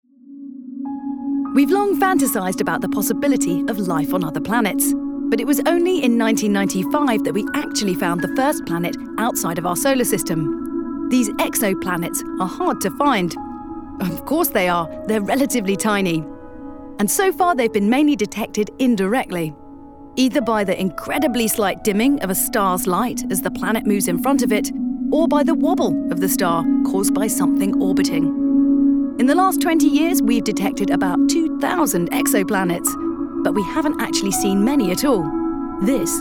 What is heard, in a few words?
Narration